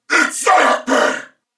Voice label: Player Spotted Male, en; Dialog; Barks; Battle; Corpus Venus; Warframe; CorpusVenusMaleB

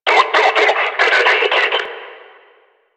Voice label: Player Spotted Attack, Barks Battle; Warframe; Lasrian; Lasrian Enemy A

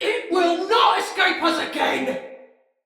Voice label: Sight Lost Shout, Male, en; Battle; Barks; Dialog; Sinecure Banneret

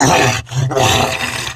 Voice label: Pain Grineer Wolf, en; Battle Barks

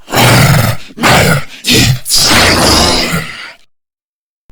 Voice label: Boss Speak Game Taunt; Lech Kril; Grn Hammer; Warframe; Taunts

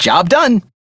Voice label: Crew Task Done, Male; Warframe; Railjack; Perrin Sequence

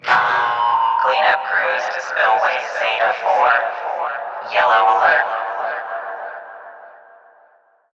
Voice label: PA System, en; Dialog; Warframe; Solaris Venus

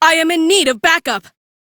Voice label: Crew Near Death, Female; Warframe; Railjack; Cephalon Suda

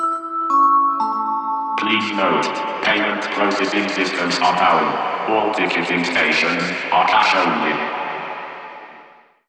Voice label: Subway Announcer, en; Warframe; Entrati Lab Quest; 2023 TennoCon

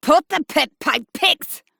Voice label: Attack, Female; Warframe; Modular Corpus Female; Battle Barks; en

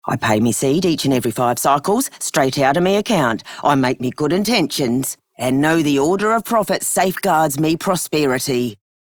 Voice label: Female Worker; Dialog; Warframe; Solaris Venus

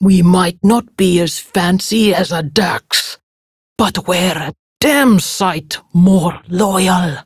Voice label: Patrol Prime Grineer Female; Tau; Battle Barks

